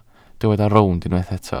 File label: "rownd", Welsh